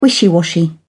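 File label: "wishy washy", English, British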